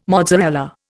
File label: "mozzarella", Indian